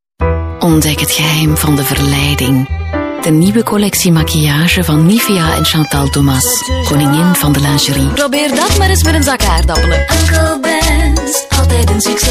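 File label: flemish female commercial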